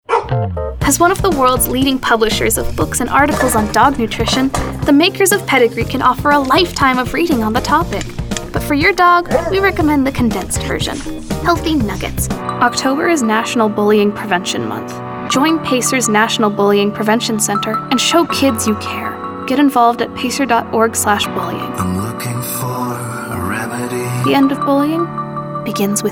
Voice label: Commercial